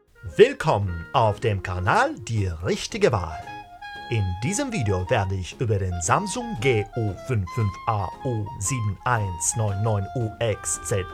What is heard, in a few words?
German